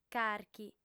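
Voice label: "carchi", female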